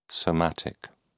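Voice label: "somatic", English, British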